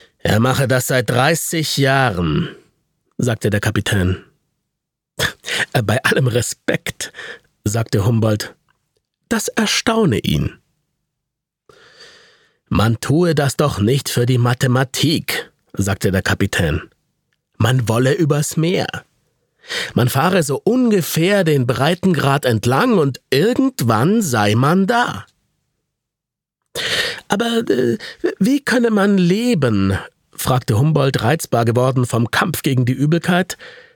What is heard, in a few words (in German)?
Hoerspiel